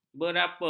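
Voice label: "berapa", Malay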